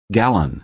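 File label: "gallon", American English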